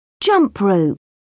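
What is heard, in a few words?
"jump rope", British English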